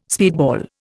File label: "speedball", Indian